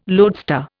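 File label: "loadstar", Indian English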